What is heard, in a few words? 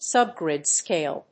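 "subgrid scale", English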